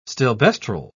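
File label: "stilbestrol", British English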